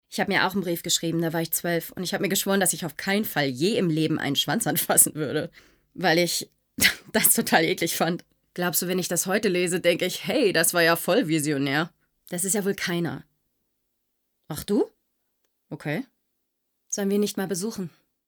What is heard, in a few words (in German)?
Synchron Arthouse, German; RealSynchron/Arthouse Mix; Lip-Sync (Synchron)